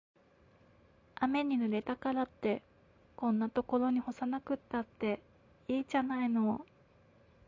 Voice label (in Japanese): アフレコ